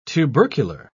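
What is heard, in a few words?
"tubercular", British English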